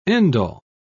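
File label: "end-all", British English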